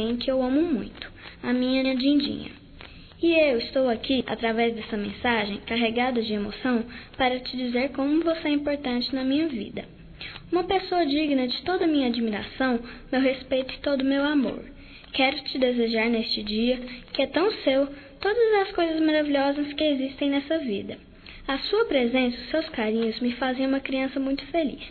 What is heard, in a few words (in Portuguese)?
MADRINHA INFANTIL, Telemensagens, Aniversarios, fem; Telemensagens 2017